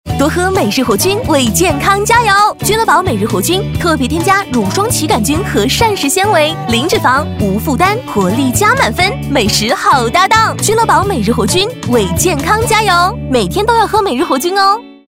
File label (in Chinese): 广告电台广告; 君乐宝每日活菌